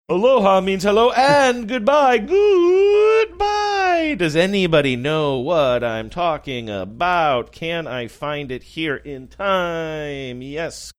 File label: saying aloha means hello and goodbye funny